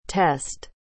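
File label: "test", English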